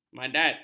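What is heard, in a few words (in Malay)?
"madat", Malay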